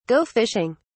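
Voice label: "go fishing", British English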